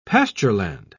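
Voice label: "pastureland", British English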